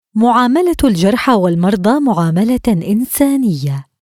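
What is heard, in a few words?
Treat the wounded, English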